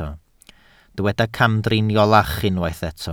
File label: "camdriniolach", Welsh